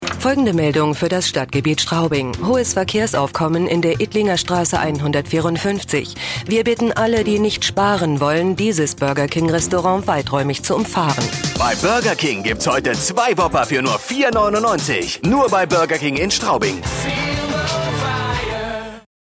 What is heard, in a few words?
de commercial